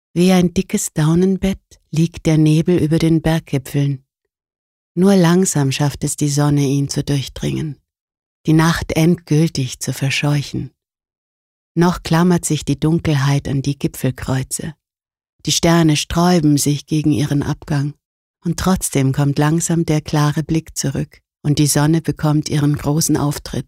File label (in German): Schauspiel Sanft